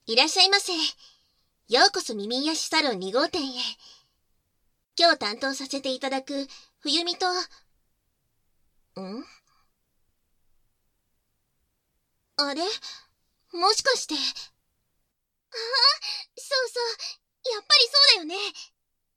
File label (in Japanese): オープニング 自己紹介（簡易モノラル編集）; 効果音なし